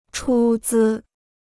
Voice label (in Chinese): "出资", Chinese